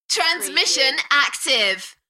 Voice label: UK female, Transmission active, Enthusiastic; beanstalk audio

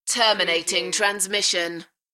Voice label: UK female, Terminating transmission; beanstalk audio

